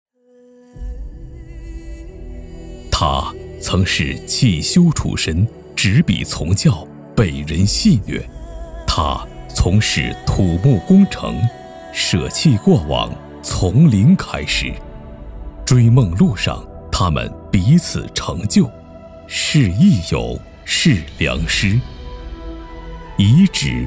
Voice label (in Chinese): 专题片